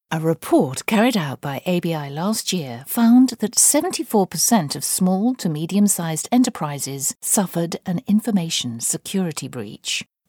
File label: english UK female